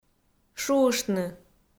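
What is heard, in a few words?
"шуыштны", Komi, female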